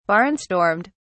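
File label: "barnstormed", British English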